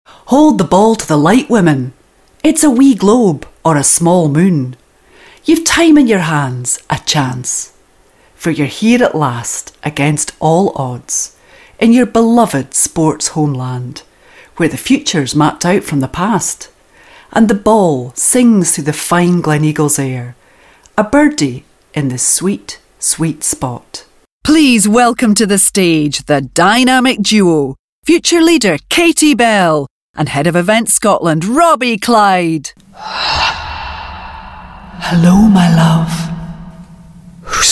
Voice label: Character and Corporate